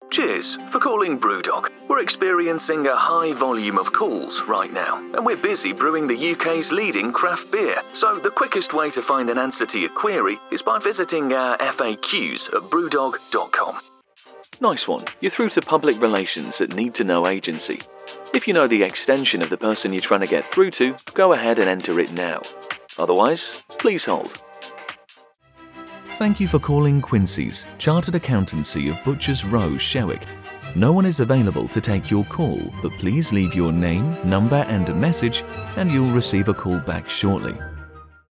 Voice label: telephony

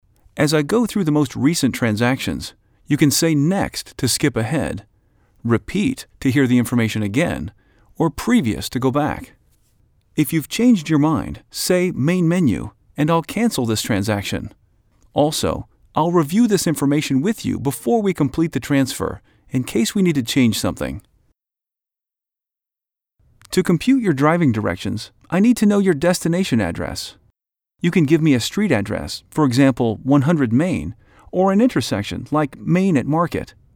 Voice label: Telephony Voice Over

